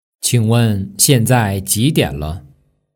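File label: "qingwen", Mandarin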